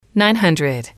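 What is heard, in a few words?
"nine hundred", English, female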